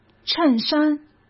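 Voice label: "衬衫", Mandarin Chinese; chinese-flashcards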